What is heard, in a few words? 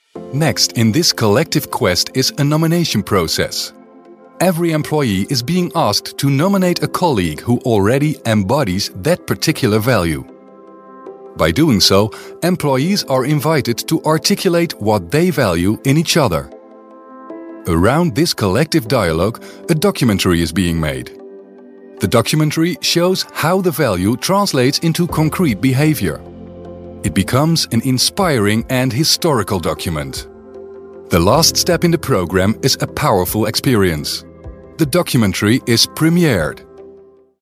dutch voice english with dutch accent